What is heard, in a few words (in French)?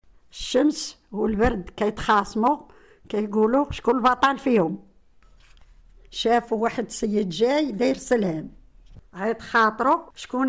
arabe marocain Sidi Smail